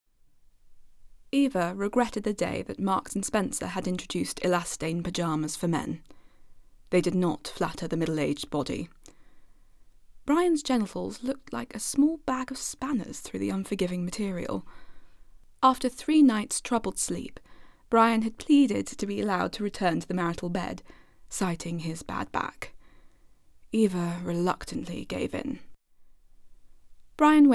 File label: Standard English Own Voice; The Woman Who Went to Bed for a Year; Sue Townsend